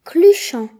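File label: "squat", Breton; gcompris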